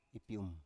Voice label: "ipium", Biak